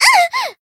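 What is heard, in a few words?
Voice damaged Japanese; altena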